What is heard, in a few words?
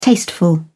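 "tasteful", British English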